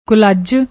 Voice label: "kullaj", Punjabi; ਕੁਲੱਜ